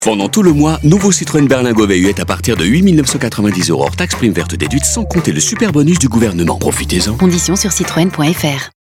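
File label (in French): PUB Citroen, voix; CITROEN RADIO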